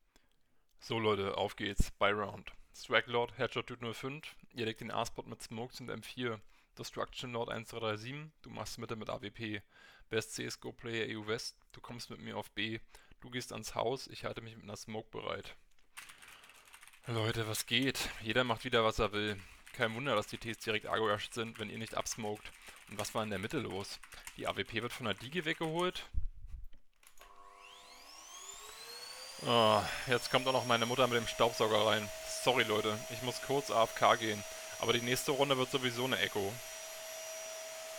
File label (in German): Testaufnahme Logitech G432 Gaming Headset Klinke